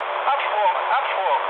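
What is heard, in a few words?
German bailout mood low, speech; War Thunder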